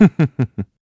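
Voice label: voice Happy, Korean; heroes; Riheet; kings raid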